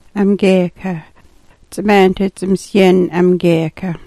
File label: "amgyeeka", smalgyax